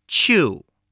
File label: "chew", spelling